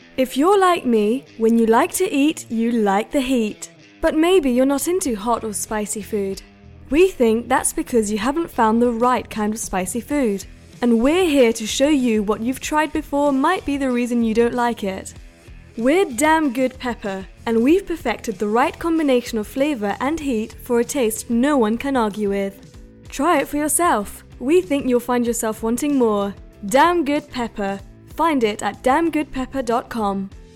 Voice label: commercial en uk